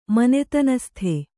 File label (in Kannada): "ಮನೆತನಸ್ಥ", Kannada